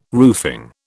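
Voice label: "roofing", British English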